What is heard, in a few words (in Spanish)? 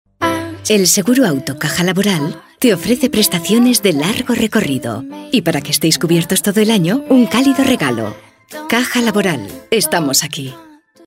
Femenino